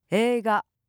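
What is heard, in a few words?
"eiga", Japanese; NewNakama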